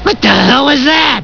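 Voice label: "What the hell", speech; simpson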